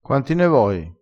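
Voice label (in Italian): "fogliata", vocabolario dialettale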